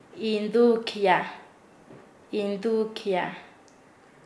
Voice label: "entregarse voluntariamente", Nasa Yuwe; paez